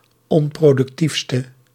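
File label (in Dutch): "onproductiefste", Dutch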